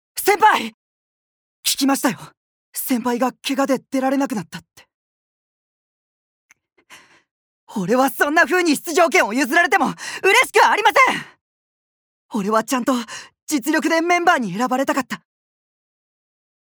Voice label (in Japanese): アニメ